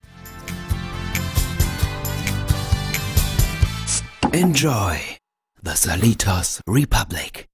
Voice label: TV Spot; Salitos

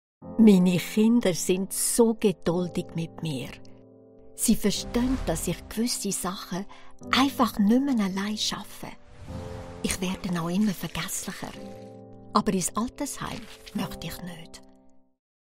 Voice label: Swiss German Explainer Image Presentation; 24-Stundenpflege

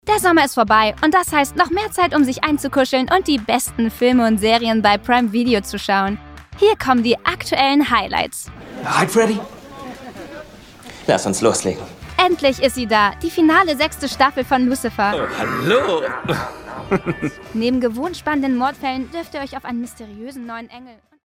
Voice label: Commercial, German; Prime Video Highlights; Amazon Prime; News (Nachrichten)

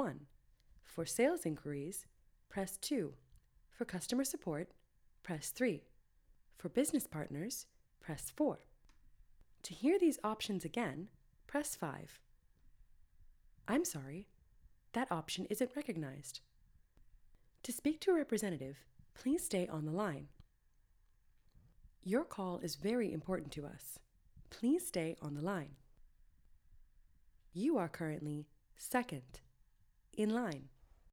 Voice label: Automated Menu; Next Gen

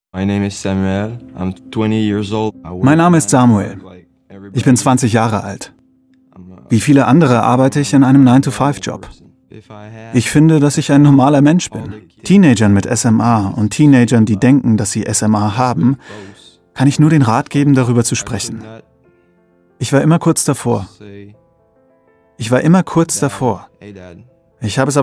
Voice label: DEU Voice Over

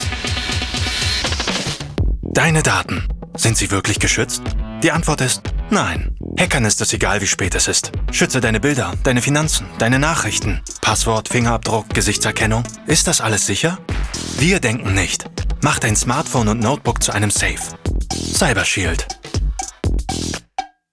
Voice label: Commercial, Commercial (Werbung), German; Werbung - CyberSecurity

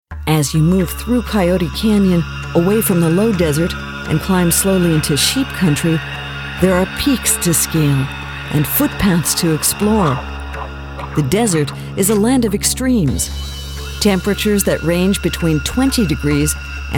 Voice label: Tourguide; Coyote Canyon

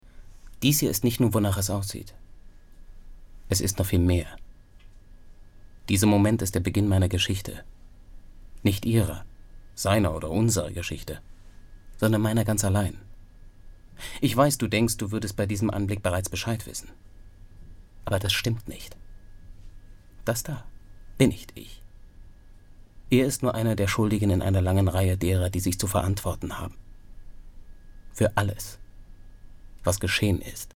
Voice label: VOICE OVER

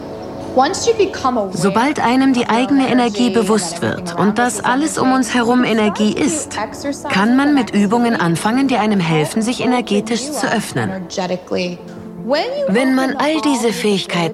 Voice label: Voice Over Doku TRAILER; The Goop Lab

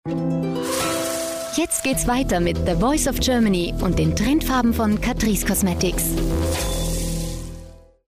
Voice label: tv commercial reminder; catrice

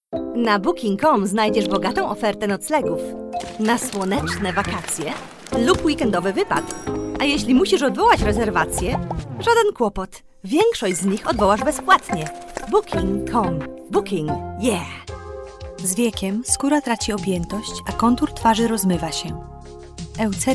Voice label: Polish Commercials; LOFT VOICES

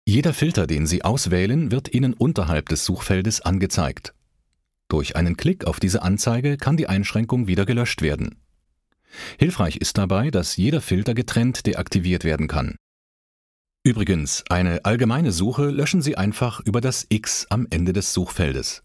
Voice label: e learning tutorial

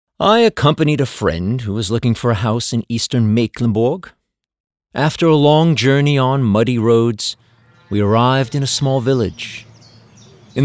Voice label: Audioguide In Character

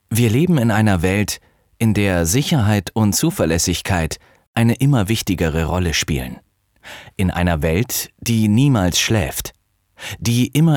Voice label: Image emotional; 365-Tage

